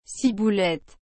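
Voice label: "ciboulette", French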